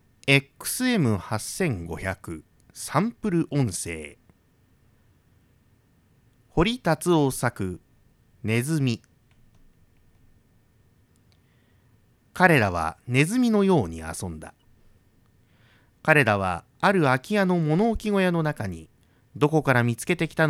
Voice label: xm8500